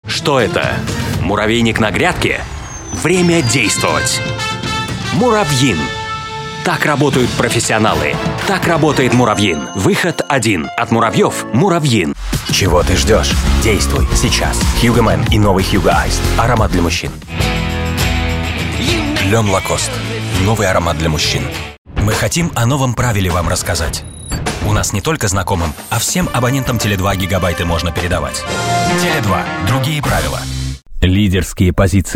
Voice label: rus

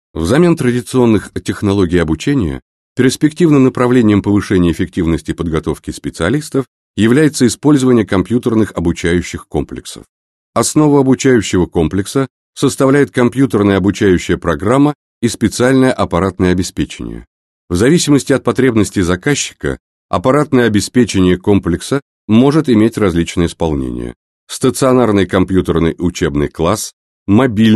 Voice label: russian male

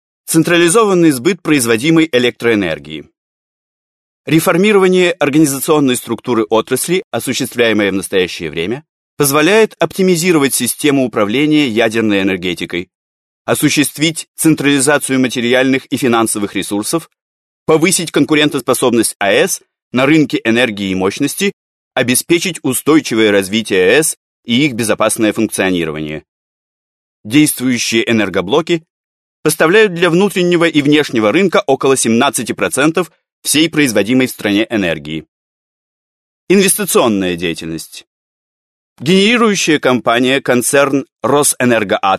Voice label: russian male

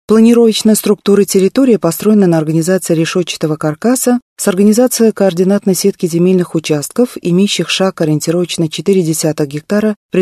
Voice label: russian female

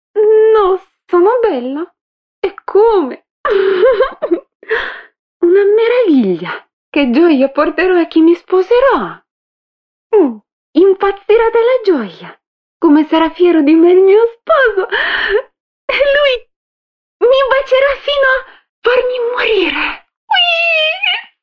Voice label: italian female

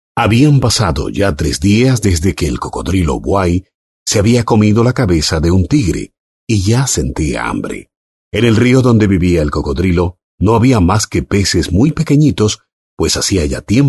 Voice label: spanish male

